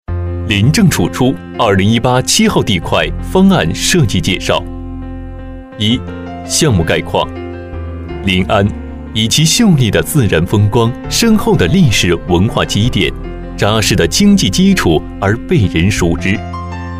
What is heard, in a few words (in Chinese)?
男 专题